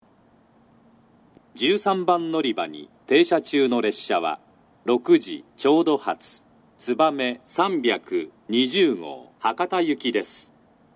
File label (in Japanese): 13番のりば停車中案内放送, 新幹線ホーム; 筑後船小屋; 13番のりば停車中案内放送（つばめ320号 博多行き）